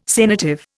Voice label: "sanative", Indian

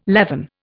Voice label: "leaven", Indian

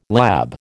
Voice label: "lab", American

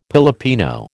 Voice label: "Pilipino", American

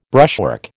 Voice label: "brushwork", American

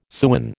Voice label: "sewen", American

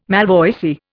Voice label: "malvoisie", Indian

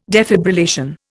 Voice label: "defibrillation", Indian

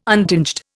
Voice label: "untinged", Indian

